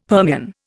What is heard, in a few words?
"Permian", Indian; Dictionary